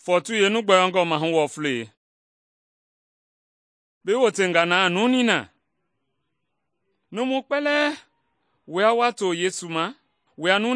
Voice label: mende bsl nt drama; good news mende kpa